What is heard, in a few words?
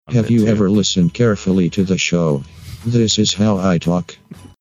playing clip of computer voice; have you ever listened carefully